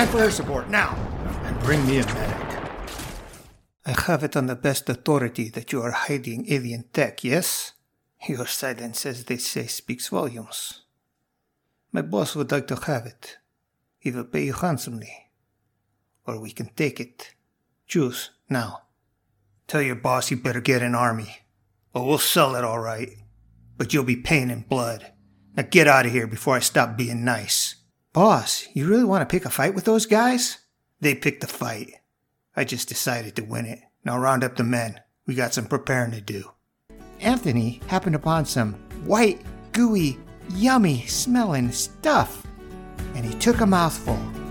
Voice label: vo reel animation and gaming